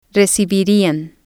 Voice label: "recibirian", Spanish